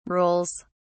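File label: "rules", English, British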